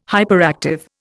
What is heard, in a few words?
"hyperactive", Indian